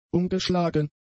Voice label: "umgeschlagen", German; adjectives

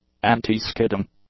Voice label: "anti-skidding", English; adjectives

